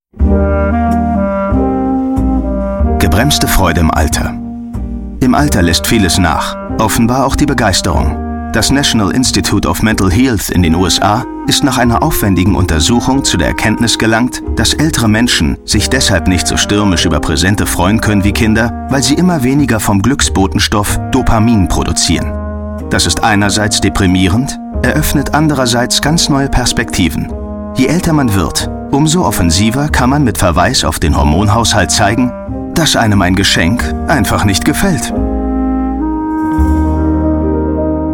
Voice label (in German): Doku; Synchronsprecher & Schauspieler